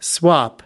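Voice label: "swap", English, American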